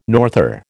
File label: "norther", American